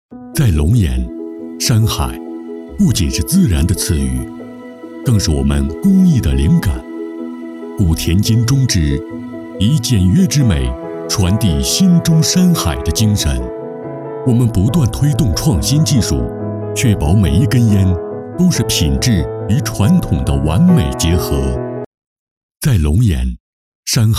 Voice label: 走心产品宣传片

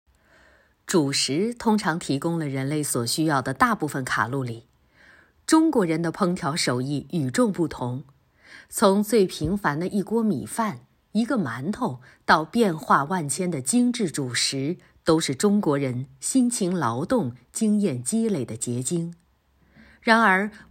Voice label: 纪录片; 舌尖上的中国

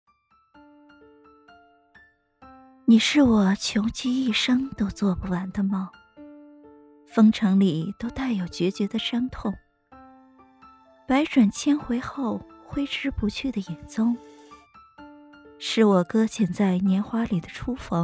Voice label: 娇媚慵懒

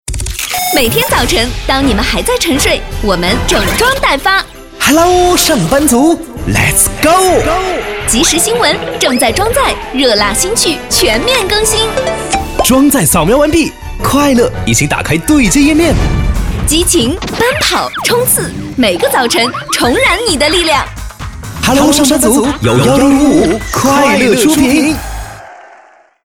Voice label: 电台广告; 电台广告哈喽上班族

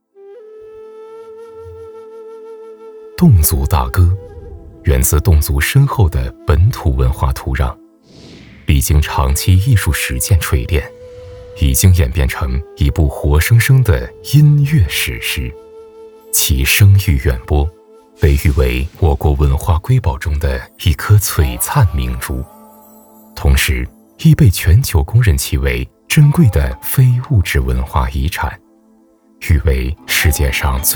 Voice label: 纪录 非遗 侗族大歌

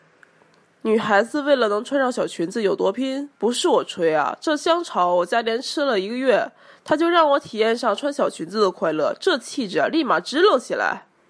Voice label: 东北话试音

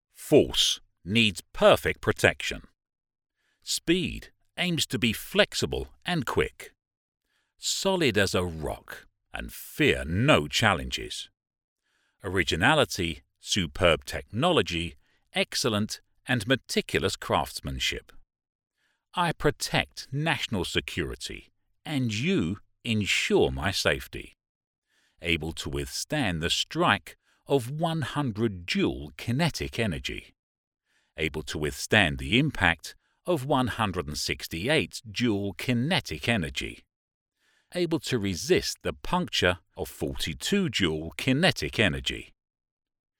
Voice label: 外籍英式英语大气力度

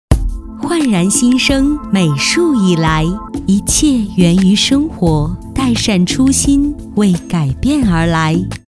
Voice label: 广告大气高端

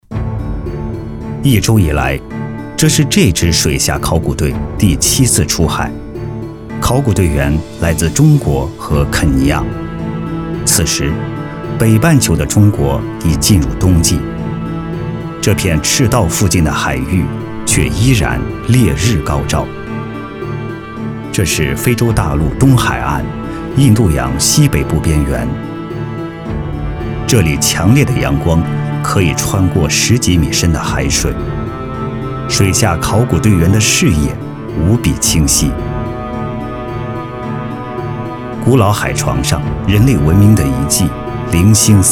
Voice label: 纪录片正文

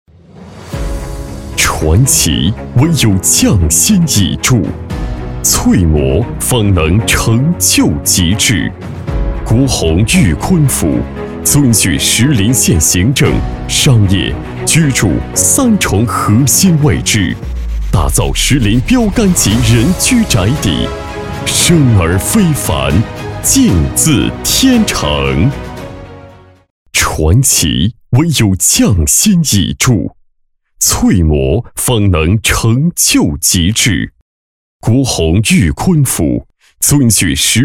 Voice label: 干声; 国弘·钰坤府小样

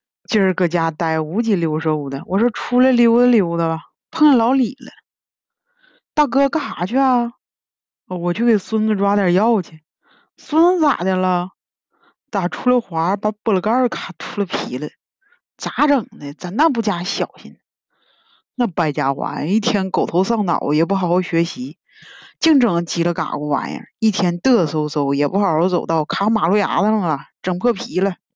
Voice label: 东北话场景对话

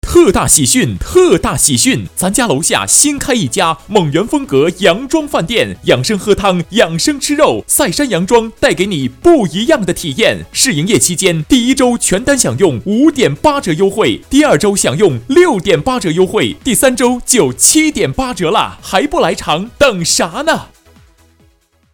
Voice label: 促销广告; 塞羴羊庄

